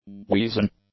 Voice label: "weazen", English; adjectives

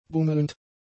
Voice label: "bummelnd", German; adjectives

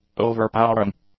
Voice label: "overpowering", English; adjectives

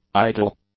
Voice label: "idle", English; adjectives

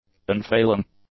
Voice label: "unfailing", english; adjectives

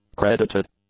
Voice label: "credited", English; adjectives

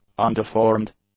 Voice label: "undeformed", English; adjectives

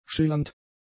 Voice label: "schillernd", German; adjectives

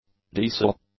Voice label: "diesel", English; nouns

